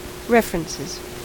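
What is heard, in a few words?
"references", American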